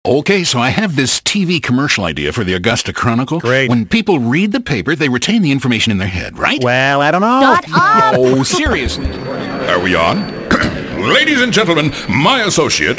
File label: Character, animation, Voiceover